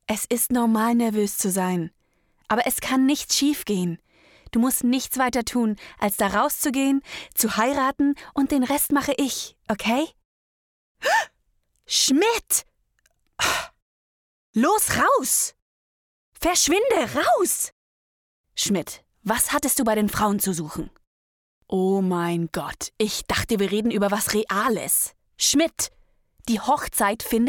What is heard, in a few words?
Comedy